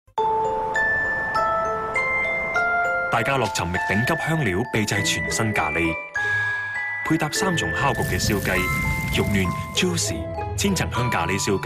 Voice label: TVC